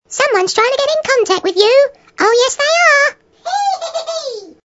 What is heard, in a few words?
Squeaky voice; Ringtones